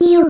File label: Serious Word; Midna